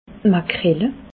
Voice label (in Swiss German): "makrele", alsace